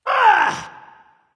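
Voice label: ouch, dragon; fafnir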